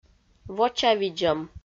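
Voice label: "вочавидзӧм", Komi, female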